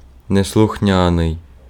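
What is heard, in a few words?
Ukrainian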